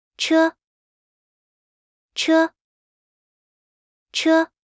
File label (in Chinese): "車", mandarin; Traditional Chinese